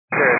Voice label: 122.925 MHz; scanner radio